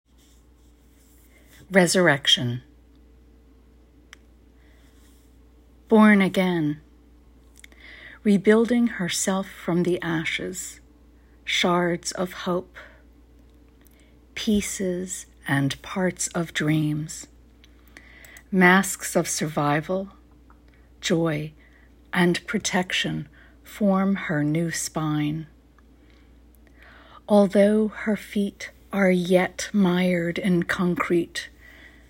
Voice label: poem; Resurrection